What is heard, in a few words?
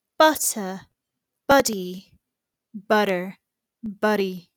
butter buddy, British accent